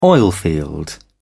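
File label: "oilfield", British English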